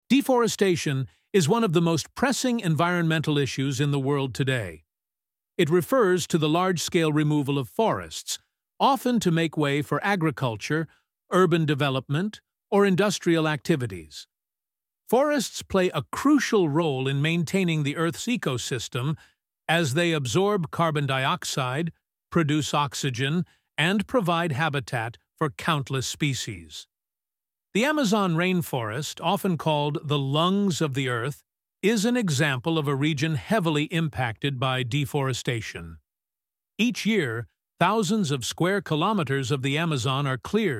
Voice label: Narrator; unit 11 the environment